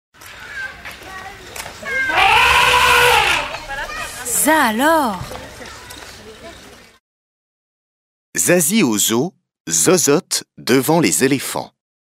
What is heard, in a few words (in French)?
VIRELANGUE; Nouveau Pixel 1